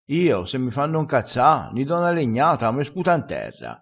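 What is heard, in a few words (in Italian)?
"come sputa n terra", dialettale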